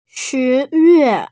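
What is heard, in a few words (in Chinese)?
"shi2yue4", Mandarin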